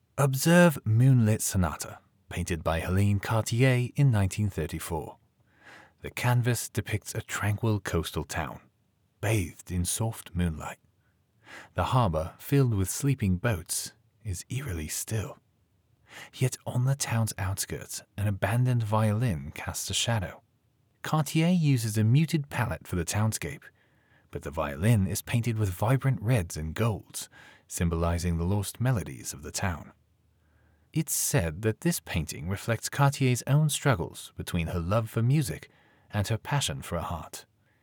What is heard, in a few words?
audio guide British artwork description